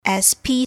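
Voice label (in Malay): "sp", Malay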